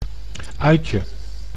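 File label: "uitje", Dutch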